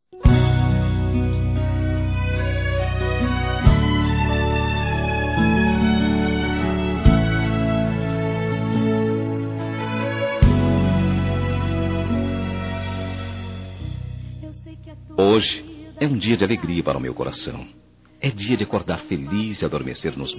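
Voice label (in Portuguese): Romantica Evangélica Masculino; Aniversários; ANIVERSARIO romantico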